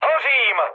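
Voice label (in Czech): Czech on fire, mood medium; War Thunder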